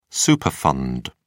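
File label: "superfund", English, uk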